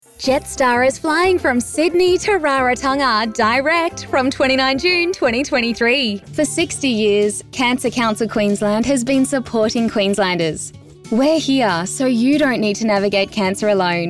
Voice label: Commercial Music No Slate